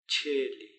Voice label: "cheeli", Palula

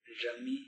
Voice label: "Zami", Palula

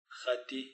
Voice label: "xati", Palula

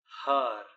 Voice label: "haar", Palula

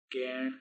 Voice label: "keeN", Palula

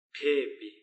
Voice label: "pheepi", Palula

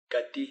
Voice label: "kati", Palula

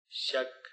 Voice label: "shak", Palula

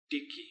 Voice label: "Tiki", Palula

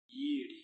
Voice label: "yiiRi", Palula

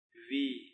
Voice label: "wii", Palula

